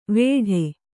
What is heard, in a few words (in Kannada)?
"ವೇಡೆ", Kannada